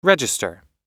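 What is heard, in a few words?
"register", English; oxford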